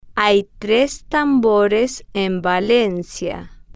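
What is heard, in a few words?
hay tres tambores en Valencia, Spanish, female, slow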